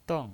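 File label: "deng" Mandarin Chinese, fourth tone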